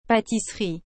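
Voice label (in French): "pâtisserie", French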